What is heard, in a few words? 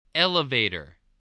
"elevator", spelling